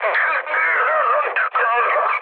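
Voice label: Italian damaged pilot mood low, speech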